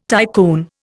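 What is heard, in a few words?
"tycoon", Indian English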